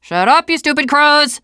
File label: gravel hill, teengirl